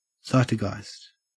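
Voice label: "zeitgeist", Australian English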